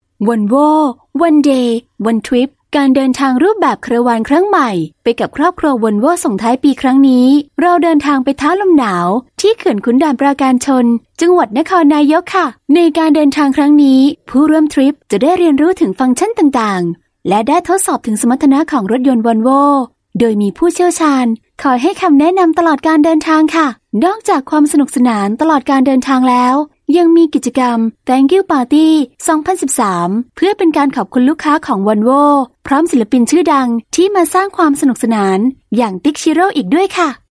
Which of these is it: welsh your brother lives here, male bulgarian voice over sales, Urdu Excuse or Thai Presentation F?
Thai Presentation F